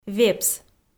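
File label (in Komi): "вепс", Komi, female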